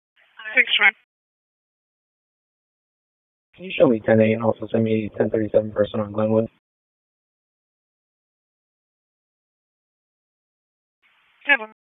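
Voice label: Police Southwest District Disp, radio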